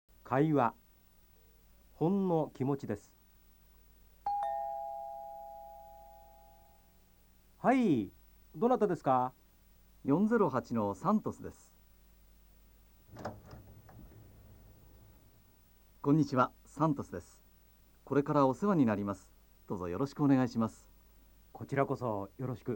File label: nihongo dialog, jap